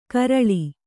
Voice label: "ಕರಳಿ", Kannada

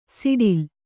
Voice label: "ಸಡಿಲ", Kannada

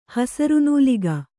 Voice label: "ಹಸುರುನೂಲಿಗೆ", Kannada

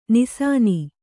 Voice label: "ನಿಸಾನಿ", Kannada

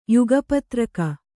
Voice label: "ಯುಗಪತ್ರಕ", Kannada

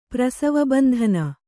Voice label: "ಪ್ರಸವಬಂಧನ", Kannada

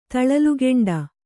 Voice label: "ತಳಲುಗೆಂಡ", Kannada

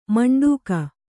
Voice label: "ಮಂಡಗೆ", Kannada

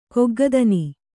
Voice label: "ಕೊಗ್ಗದನಿ", Kannada

